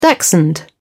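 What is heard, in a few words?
"dachshund", British English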